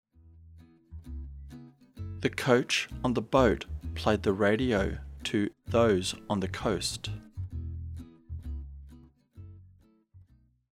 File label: slow, diphthong əʊ as in home; practice activity sentences